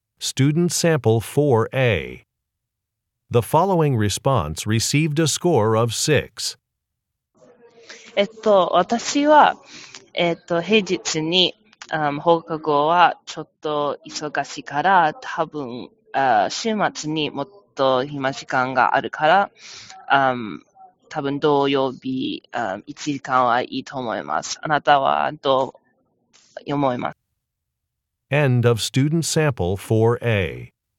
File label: japanese conversation